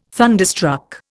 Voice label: "thunderstruck", Indian